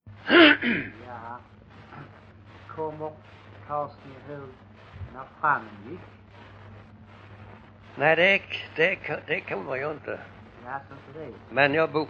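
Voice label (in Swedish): Bosjökloster Skåne, dialekter svenska sagor; Folkminnen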